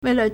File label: "wei4le", Chinese